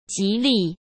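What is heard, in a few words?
"吉利", Mandarin Chinese; chinese-flashcards; hsk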